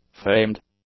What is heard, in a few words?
"famed", english; adjectives